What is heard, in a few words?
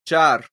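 "ĉar", esperanto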